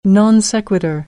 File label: "non sequitur", American English